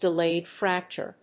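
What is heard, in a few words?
"DELAYED FRACTURE", English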